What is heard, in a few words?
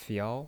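"fiao" Mandarin Chinese, second tone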